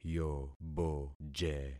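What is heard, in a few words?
"yoboje", concatenated syllables; Affixing experiment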